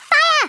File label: "FIRE", Scouser